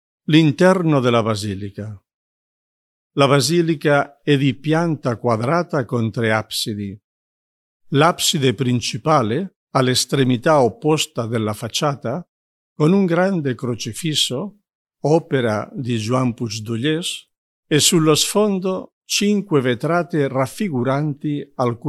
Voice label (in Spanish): Audio Italiano; TIBIDABO; audioguida italiana